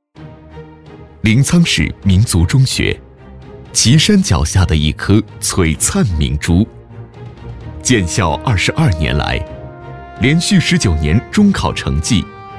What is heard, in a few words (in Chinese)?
男 企业专题 亲和磁性; 临沧民族中学; 磁性大气